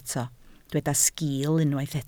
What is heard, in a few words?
"sgiil", Welsh